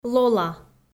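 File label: "лола" Komi, female; kpv audio dict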